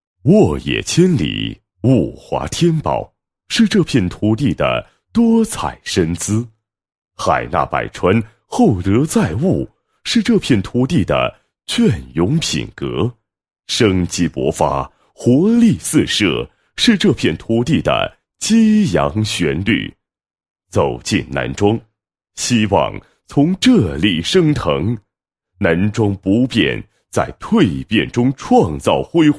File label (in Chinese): 男 旅游宣传 宣传片; 南庄镇宣传片